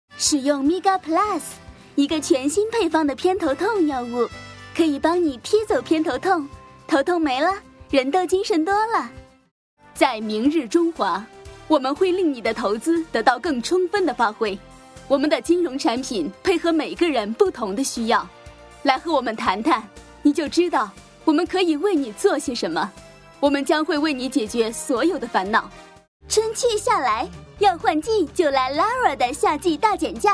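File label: Mandarin, TV commercial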